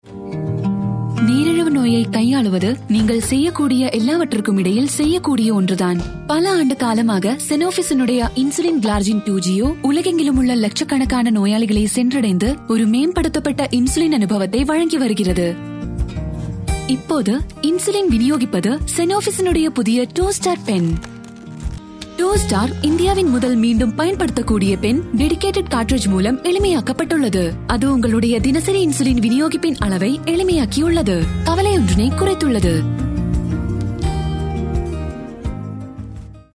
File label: Tamil; Sanofi Toustar